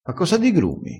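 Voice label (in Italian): "digruma", vocabolario dialettale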